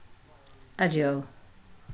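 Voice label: "adieu", British, female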